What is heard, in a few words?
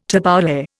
"tabbouleh", Indian